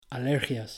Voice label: "alergias", Spanish; natural stress in spanish